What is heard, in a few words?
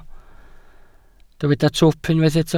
"twp", Welsh